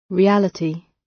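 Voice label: "reality", British English; Space, the planets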